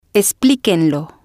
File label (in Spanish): explíquenlo, Spanish